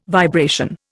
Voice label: "vibration", Indian